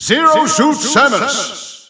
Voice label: Dutch Announcer; Zero Suit Samus; SSBU